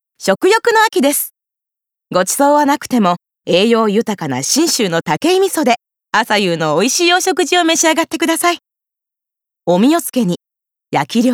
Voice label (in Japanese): 食品CM